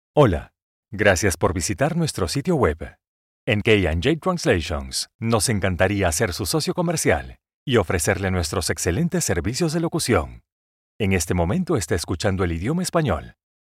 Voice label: spanish male

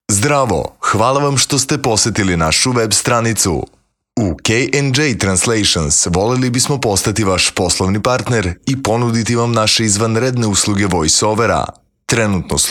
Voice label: serbia male

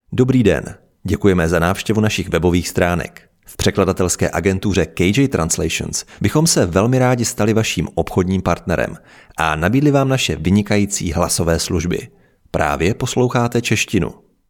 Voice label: czech male

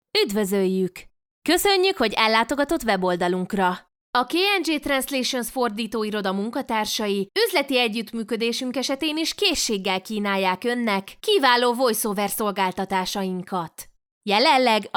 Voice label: hungarian female

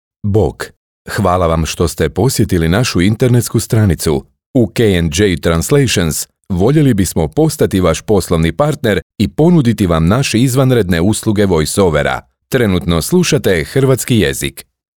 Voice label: croatian male

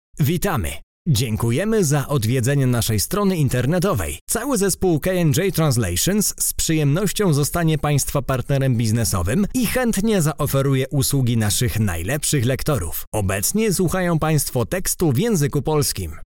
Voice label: polish male

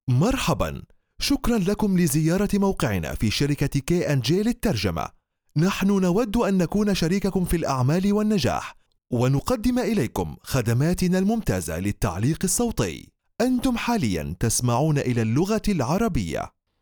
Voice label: arabic male

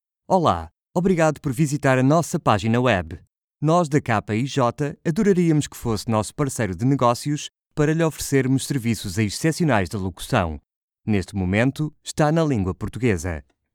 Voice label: portuguese male

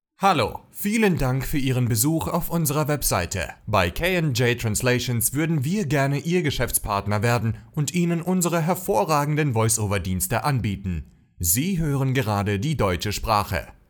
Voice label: german male

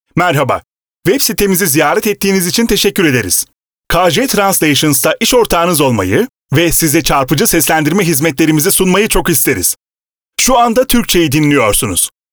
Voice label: turkish male

